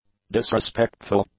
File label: "disrespectful", English; adjectives